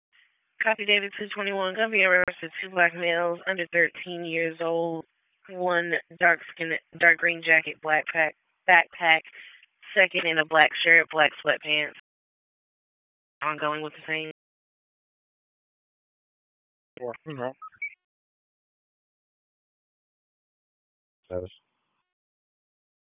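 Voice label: Police District Dispatch; Raleigh; Northeast; wake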